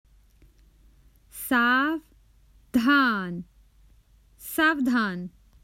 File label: Common Hindi Phrases for travel Watch out